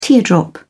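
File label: "teardrop", British English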